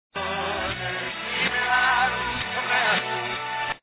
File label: reverse; Evidencias cristianas